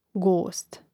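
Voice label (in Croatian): "gost", hrvatski; mreznik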